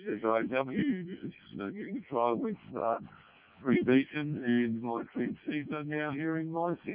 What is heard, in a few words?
decoded speech, parrot